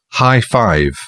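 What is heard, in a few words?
"high-five", English, British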